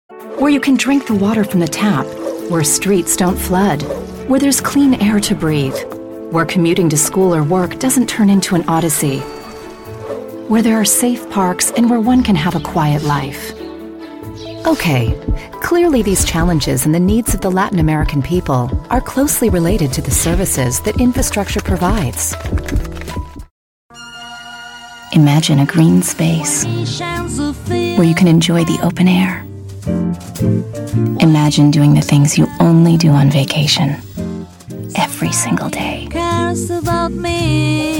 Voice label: Ingles Estados Unidos Femenina Adulta

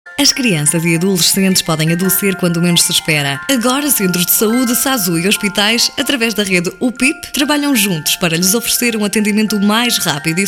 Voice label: Portugues Portugal Femenina Adulta

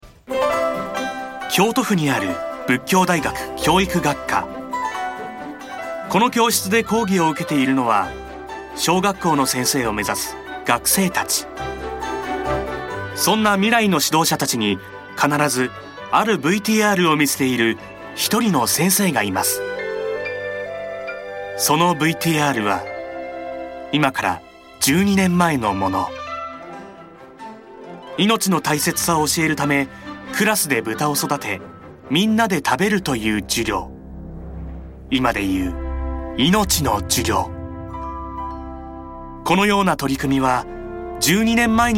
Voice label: Japones Japon Masculina Adulta

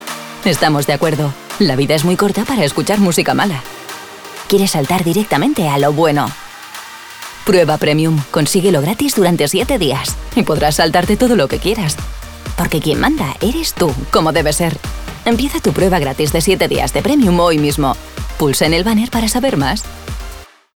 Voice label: Espanol Espana Femenina Adulta

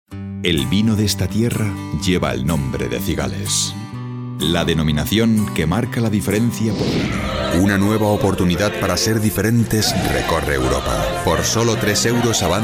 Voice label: Espanol Espana Masculina Adulta

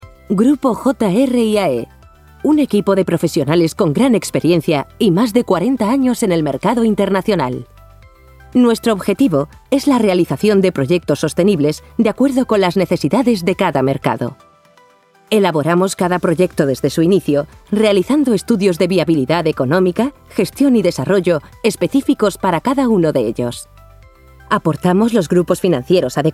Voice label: Espanol Espana Femenina Adulta

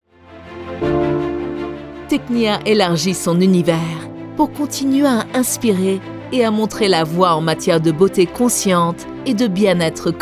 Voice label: Frances Francia Femenina Adulta

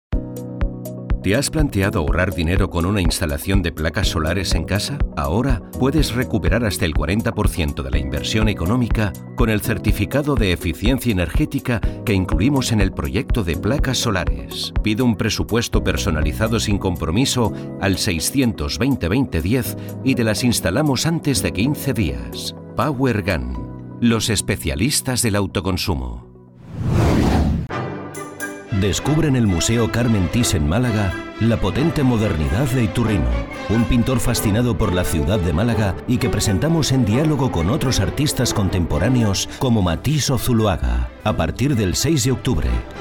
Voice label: Espanol Espana Masculina Adulta

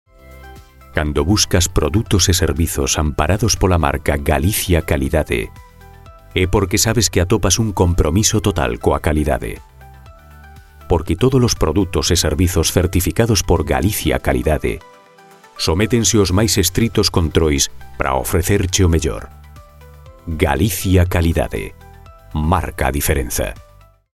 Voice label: Gallego Espana Masculina Adulta

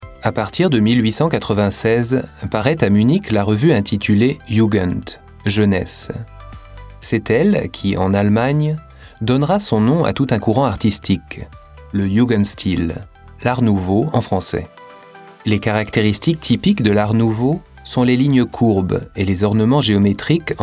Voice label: Frances Francia Masculina Adulta

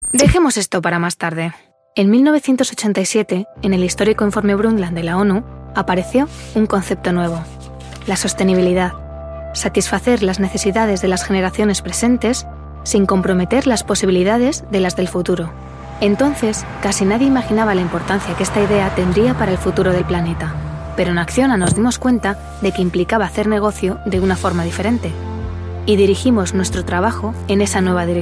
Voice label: Espanol Espana Femenina Joven

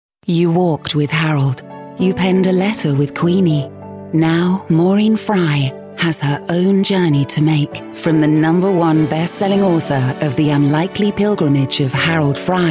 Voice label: Ingles Reino Unido Femenina Adulta

